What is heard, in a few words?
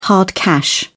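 "hard cash", British English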